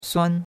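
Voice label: "suan1", Mandarin Chinese; Cours-de-Chinois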